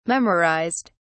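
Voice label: "memorized", British English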